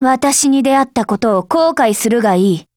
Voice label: SKILL JP

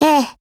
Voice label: AGREE, JP

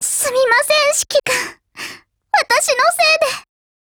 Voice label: RETREAT, JP

